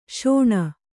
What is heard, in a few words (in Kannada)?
"ಶೋಣ", Kannada